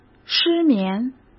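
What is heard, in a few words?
"失眠", Mandarin Chinese; chinese-flashcards; hsk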